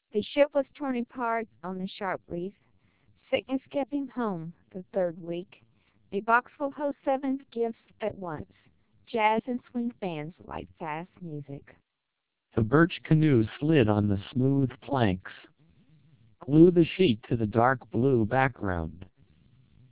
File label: 1200 bps TWELP, American